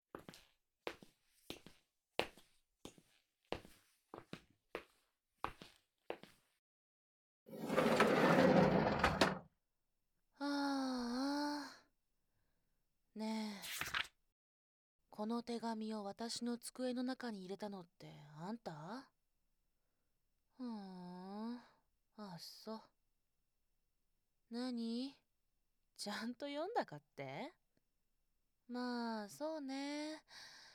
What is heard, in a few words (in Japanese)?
音声（SEあり）, ASMR; 【催眠NTRで強制絶頂】彼氏持ちギャルJKにフラれて煽られたから催眠アプリで彼氏と誤認させて寝取ってやったw【オホ声・催眠・洗脳・潮吹き】